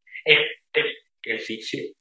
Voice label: Voice Acting, es